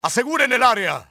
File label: secure the area, spanish soldier; enemy; XBOX